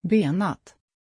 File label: pronunciation Beñat, Schwedisch